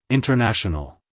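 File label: "international", American English, male; everyone-can-use-english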